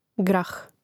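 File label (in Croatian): "grah", hrvatski; mreznik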